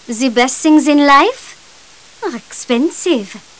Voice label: chat; maeve